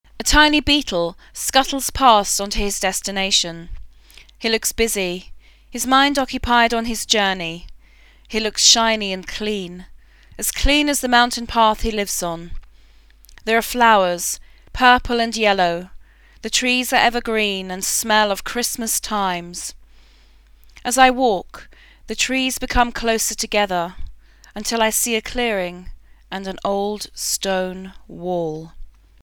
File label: Vocal RP